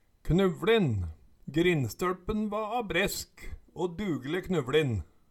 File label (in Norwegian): knuvLin, Numedalsmål